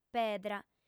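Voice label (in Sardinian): "pedra", female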